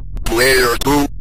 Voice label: player 1, Synth Voice; Kenney's Sound Pack